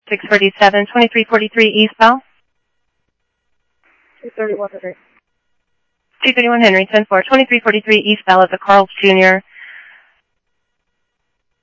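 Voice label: police department, scanner audio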